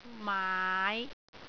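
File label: "maai5", Cantonese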